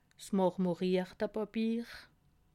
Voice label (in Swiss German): "marmorierte", alsace